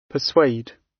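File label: "persuade", British; Business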